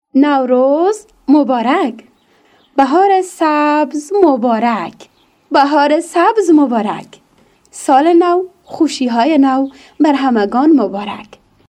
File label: Dari Female